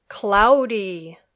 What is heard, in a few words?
"cloudy", English